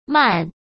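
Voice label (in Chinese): "漫" Mandarin Chinese; hsk; chinese-flashcards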